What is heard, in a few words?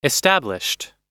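"established", American English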